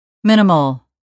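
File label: "minimal", English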